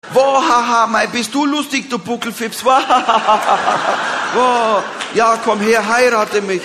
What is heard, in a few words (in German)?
lustig